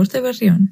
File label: "new year", Basque; Urte berri on